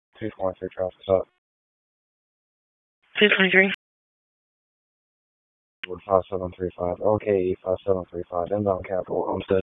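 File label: Police Northeast District Dispatch, p25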